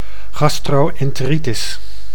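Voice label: "gastro-enteritis", Dutch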